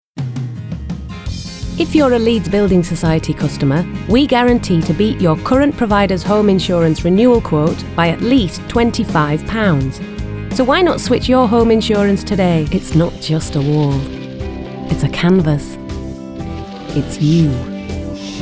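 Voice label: commercials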